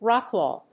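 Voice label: "rock wall", English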